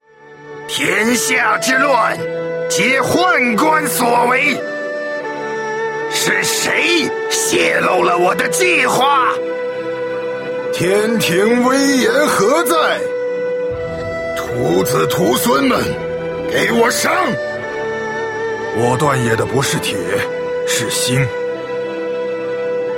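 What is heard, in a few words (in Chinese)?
male, zhonglaonian (middle-aged and elderly)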